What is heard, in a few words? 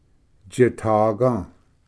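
"tsitaga", Cherokee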